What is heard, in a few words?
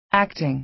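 "acting", American English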